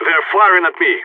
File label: radio taking fire; Counter Strike; CS:GO; phoenix